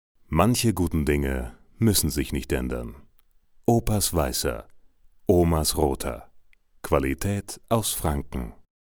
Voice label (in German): TV-Spot; Muttersprache Deutsch; Sprecher in Berlin